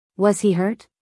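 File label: "Was he hurt", American English, female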